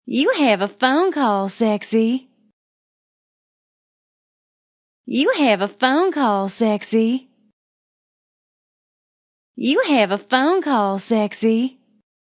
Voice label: Female Phonecall Sexy Southern; Ringtone